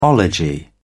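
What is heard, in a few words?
"ology", British English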